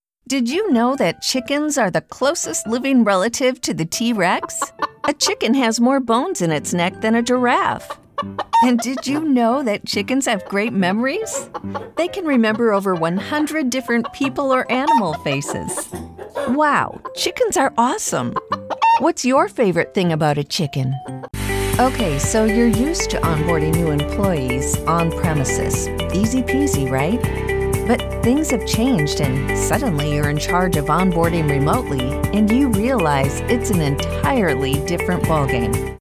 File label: eLearning